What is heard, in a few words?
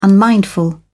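"unmindful", British English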